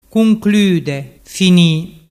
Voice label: "cuncludefinî", Vernazzese; Vocabolario Vernazzese - Italiano